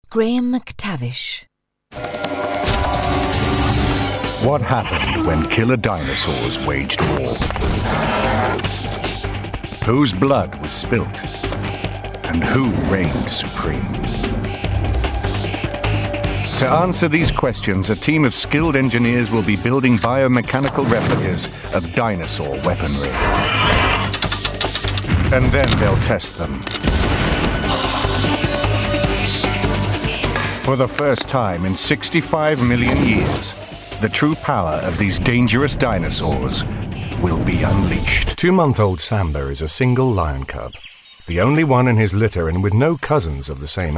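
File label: narration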